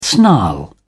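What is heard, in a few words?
"snarl", British English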